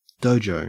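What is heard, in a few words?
"dojo", Australian English; pronunciations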